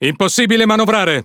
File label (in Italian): Speech Defective Modules Wheel Damaged, IT; World Of Warships